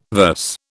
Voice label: "verse", British